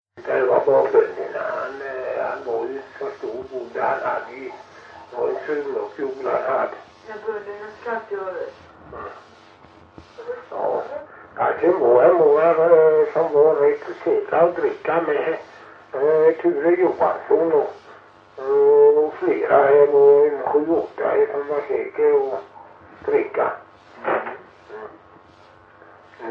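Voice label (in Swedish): Hulterstad Öland, dialekter; Folkminnen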